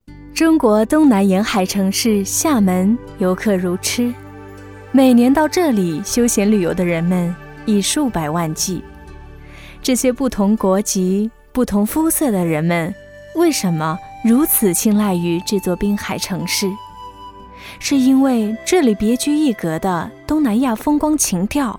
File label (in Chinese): 女 厦门（甜美 柔和）